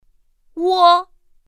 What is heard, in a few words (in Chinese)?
"wō", pinyin; pinyin2; pinyinji